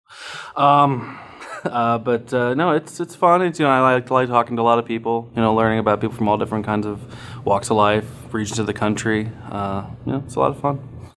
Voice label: Audio Phone Sweetened